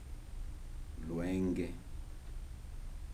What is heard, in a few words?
"light", Kibembe